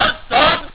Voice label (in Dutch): Bruin ventje met pistool; Wolfenstein 3D